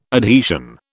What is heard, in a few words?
"adhesion", British